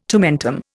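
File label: "tomfool", Indian; Dictionary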